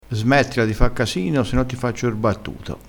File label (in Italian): "battuto", vocabolario dialettale